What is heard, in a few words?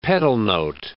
"pedal note", English